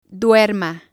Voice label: "duerma", spanish

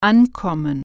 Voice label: "arrive", german

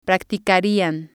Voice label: "practicarian", Spanish

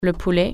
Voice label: chicken, french

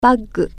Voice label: bag, japanese

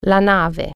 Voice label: ship, italian

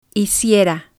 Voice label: "hiciera", spanish

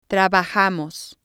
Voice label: "trabajamos", Spanish

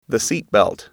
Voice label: "seat belt", English

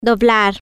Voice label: "fold" Spanish

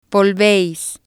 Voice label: "volveis", Spanish

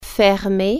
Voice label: close, french

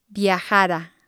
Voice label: "viajara", Spanish

